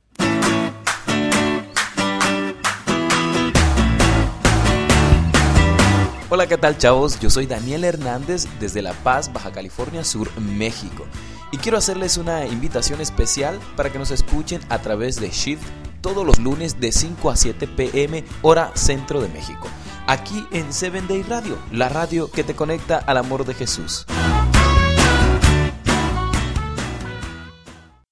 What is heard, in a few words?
Promo